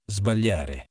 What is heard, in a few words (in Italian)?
"sbagliare", Italian